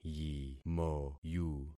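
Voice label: "yimoyu", concatenated syllables; Affixing experiment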